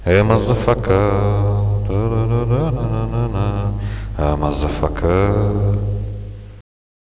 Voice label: oh yes, radio bot; Reallite